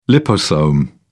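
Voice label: "liposome", British English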